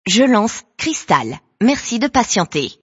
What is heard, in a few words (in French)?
lancement radio; cristal